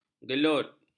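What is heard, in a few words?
"gelut", Malay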